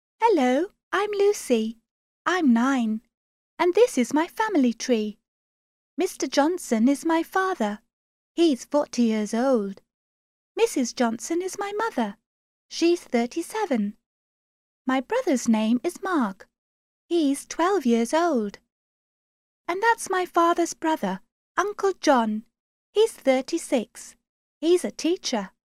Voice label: young UK eng